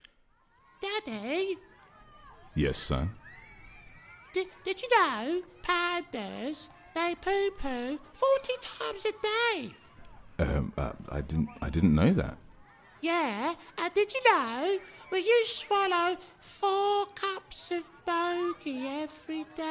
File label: english british others